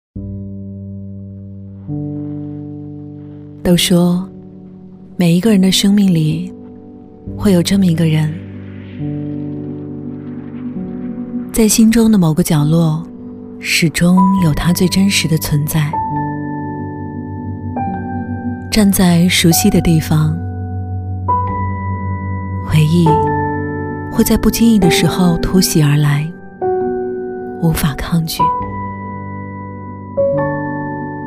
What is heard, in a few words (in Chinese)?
女 旁白 温婉 诉说; 双城